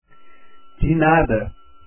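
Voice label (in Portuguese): "de nada" Portuguese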